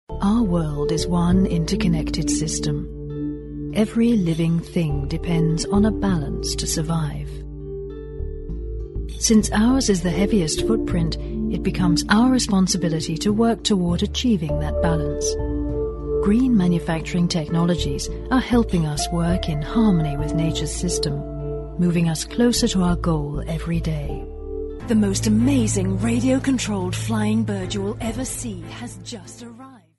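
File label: British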